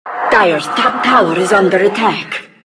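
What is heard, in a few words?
announcer tower attack dire top; Diretide 2012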